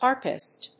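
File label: "harpist", English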